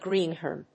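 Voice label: "greenhorn", English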